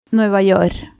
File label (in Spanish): sílaba "Nueva York", Cuba, Pinar del Río femenina; spansk; fonetica